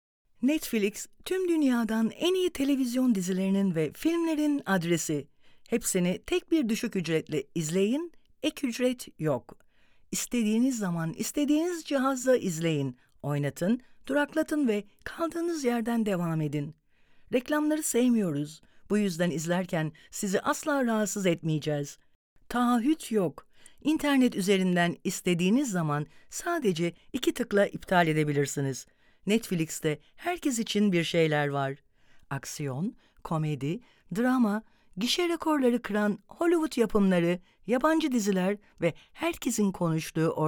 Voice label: female commercial, Turkish; english and turkish; netflix